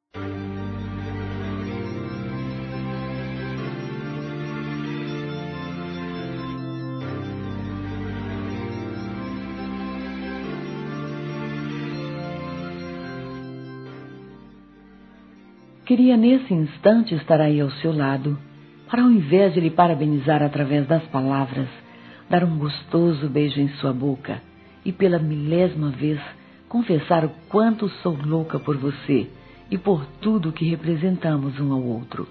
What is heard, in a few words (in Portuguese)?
Aniversário Romântico Amante F, Telemensagens, Fem; Aniv Familiar